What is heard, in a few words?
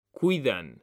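"CUIDAN", Spanish; mekanta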